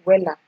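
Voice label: "wella", Nigerian Pidgin, female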